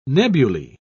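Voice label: "nebulae", British English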